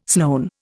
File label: "Sloane", Indian